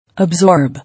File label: "Absorb", English